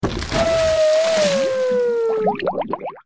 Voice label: Drowning Voice